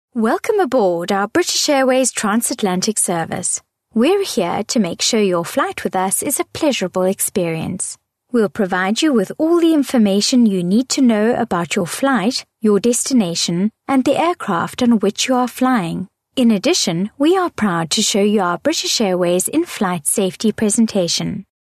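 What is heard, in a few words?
Afrikaans Female English; south african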